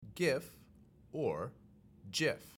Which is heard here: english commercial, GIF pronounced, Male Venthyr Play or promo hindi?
GIF pronounced